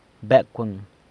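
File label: "Becwëng", San Lucas Quiaviní Zapotec; It's a dog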